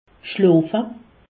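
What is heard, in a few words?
"schloofe", Haut Rhin; medecine; dormir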